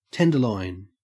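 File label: "tenderloin", Australian; pronunciations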